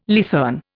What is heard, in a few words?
"leathern", Indian